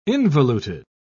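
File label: "involuted", British English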